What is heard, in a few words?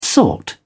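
"sought", British English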